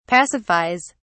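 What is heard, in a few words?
"pacifies", British English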